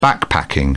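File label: "backpacking", British English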